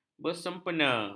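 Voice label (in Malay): "bersempena", Malay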